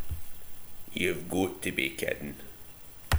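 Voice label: "kiddin", slang; Ah huvnae seen ye aboot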